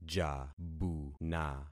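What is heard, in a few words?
"jabuna", concatenated syllables; Affixing experiment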